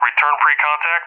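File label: "return pre-contact", English, Tanker; Tanker Messages; DCS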